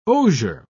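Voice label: "osier", British English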